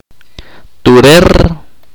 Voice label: "sentarse", chorti